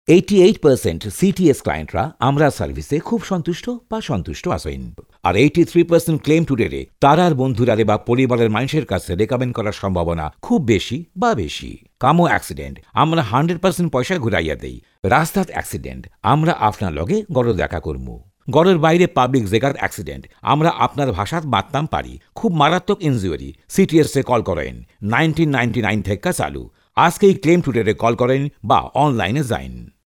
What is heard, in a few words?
Bengali Sylheti Male